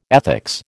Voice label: "ethics", American